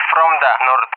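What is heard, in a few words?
"from the N", English, RUSSIA; DCS; Allied Flight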